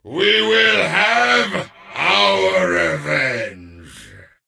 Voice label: Death Knight Male Farewell